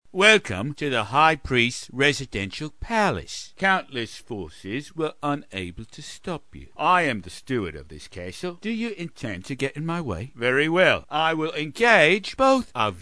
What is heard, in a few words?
Gate Keeper -Steward, Silly; animation